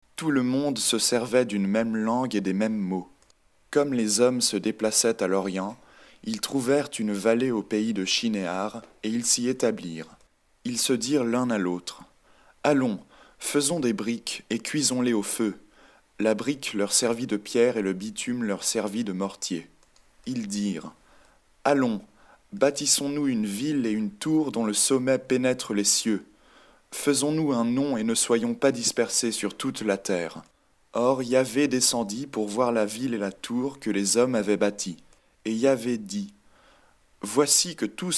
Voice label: French; ALors toute la terre vniuerſelle eſtoit dung meſme language & parolle.